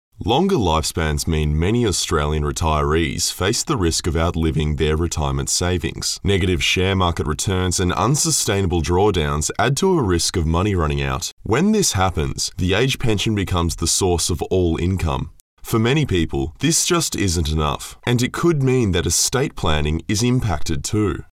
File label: CORPORATE SHOWREEL